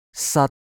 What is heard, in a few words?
"sat1", cantonese